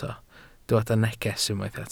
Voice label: "neges", Welsh